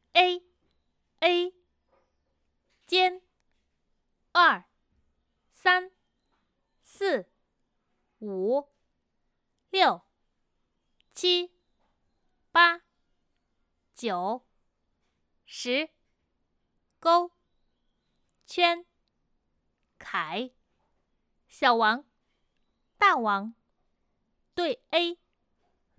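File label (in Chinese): 游戏语音女声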